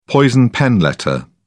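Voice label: "poison pen letter", British English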